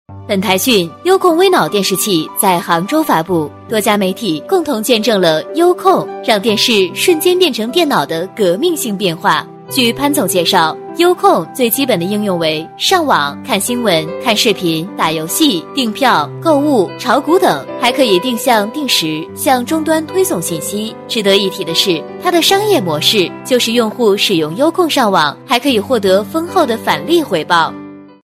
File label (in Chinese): 女 新闻; 双语配音; 悠控微脑电视